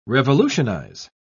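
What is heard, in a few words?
"revolutionise", British English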